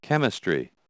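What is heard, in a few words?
"chemistry", English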